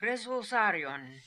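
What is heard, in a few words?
"Resulzarion", pronunciations; Fourth Way; Beelzebub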